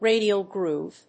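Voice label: "radial groove", English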